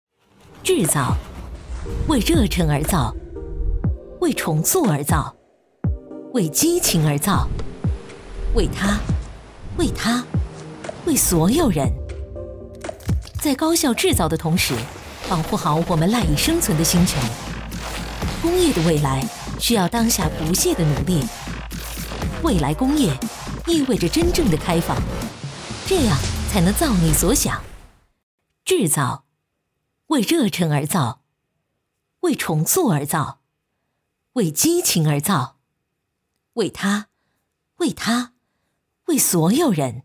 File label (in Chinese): 女 科技感 制造